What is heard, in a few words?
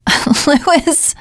name louis, teengirl, voice; player; survivor